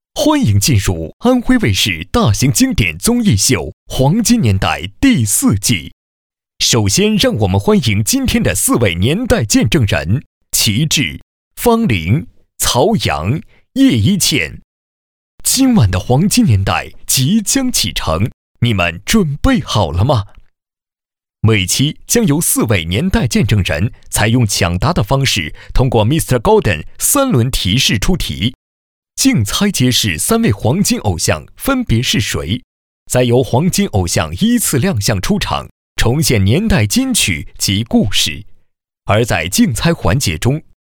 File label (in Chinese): 男 干音 慢版, 国语男声配音 磁性; 安徽卫视黄金年代慢版